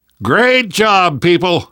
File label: hurrah, survivor voice; Left 4 Dead 2; left4dead2 dlc3